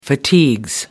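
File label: "fatigues", British English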